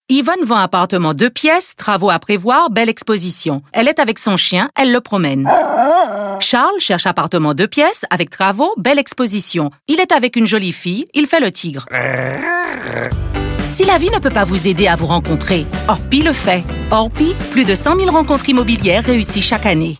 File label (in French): accent créole Animaux; ORPI